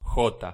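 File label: "j", Spanish pronunciation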